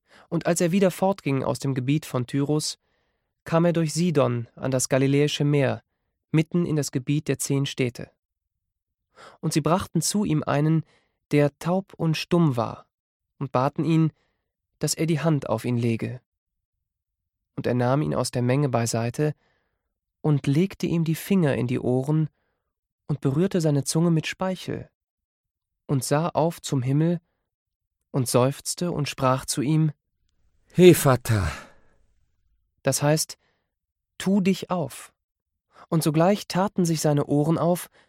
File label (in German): Lesung; markus 7 31-37; Die Heilung eines Tauben; patmos-gemeinde; Lesung des Evangeliums